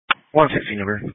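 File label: Police Patrol (Dispatch)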